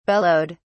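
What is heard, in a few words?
"bellowed", British English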